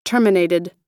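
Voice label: "terminated", US English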